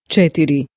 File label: "cetiri", Croatian